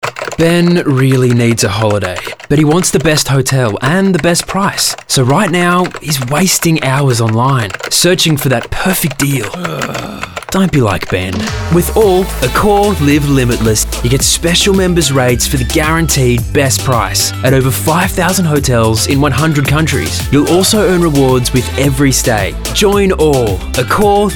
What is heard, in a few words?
Commercial; Accord